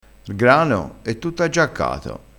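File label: "aggiaccato", Italian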